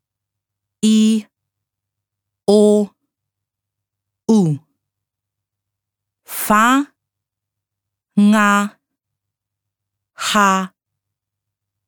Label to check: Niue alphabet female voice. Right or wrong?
Right